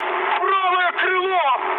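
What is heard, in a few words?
right wing, mood high, Russian; War Thunder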